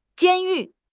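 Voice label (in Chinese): "监狱", Mandarin Chinese; HSK6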